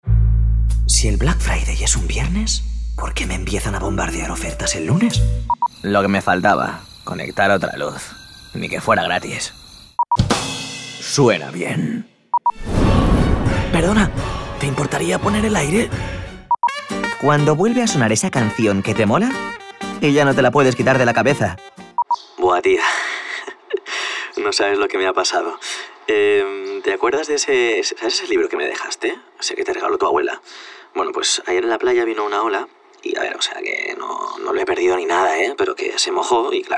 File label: COMMERCIAL, Spanish, male